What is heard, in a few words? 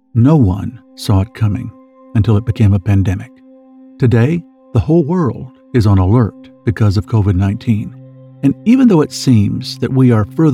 e-learning